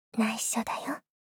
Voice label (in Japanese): 内緒だよ? 大人 中音域